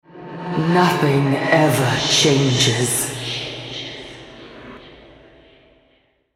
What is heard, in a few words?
Altar Capture, Female